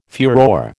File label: "furore", American